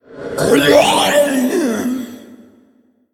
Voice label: Androgynous Faceless Death